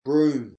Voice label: "broom", English spelling